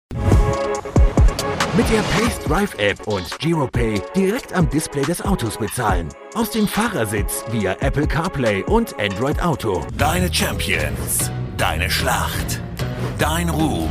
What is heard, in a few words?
male, German, commercial